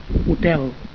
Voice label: "hotel", Catalan

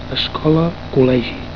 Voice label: "escola", Catalan

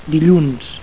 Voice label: "dilluns", Catalan

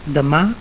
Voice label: "demà", Catalan

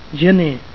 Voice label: "gener", Catalan

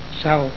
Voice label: "sal", Catalan

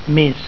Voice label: "mes", Catalan

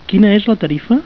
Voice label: "quina és", Catalan

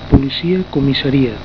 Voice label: "policia", catalan

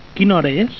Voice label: "quina hora és", Catalan

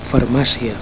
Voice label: "farmacia", Catalan

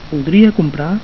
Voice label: "voldria comprar", Catalan

